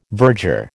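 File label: "verger", American English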